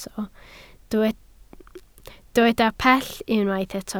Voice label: "pell", Welsh